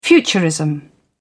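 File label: "futurism", British English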